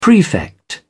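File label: "prefect", English, British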